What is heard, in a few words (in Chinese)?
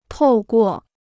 "透过", Chinese